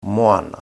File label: "moana", te reo